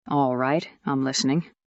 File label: fem